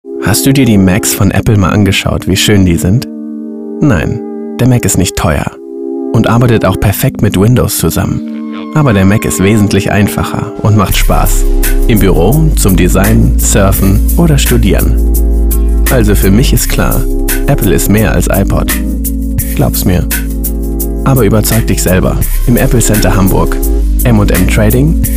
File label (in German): Monolog